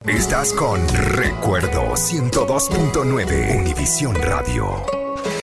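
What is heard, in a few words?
Spanish Latin America, radio announcement; GoLocalise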